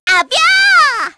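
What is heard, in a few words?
Vox Casting, Korean; Hanus; kingsraid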